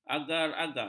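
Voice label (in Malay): "agar-agar", Malay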